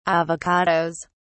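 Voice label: "avocados", British English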